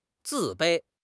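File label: "自卑", Chinese; HSK6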